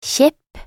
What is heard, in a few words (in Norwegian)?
"kjepp", nb NO